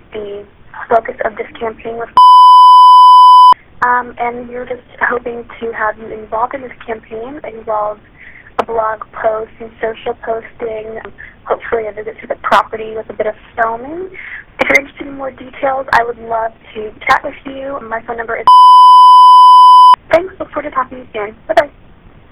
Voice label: Influencer marketing bleeped call; paid influencer marketing; event industry